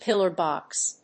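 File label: "pillar-box", English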